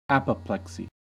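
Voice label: "apoplexy", US English; pronunciations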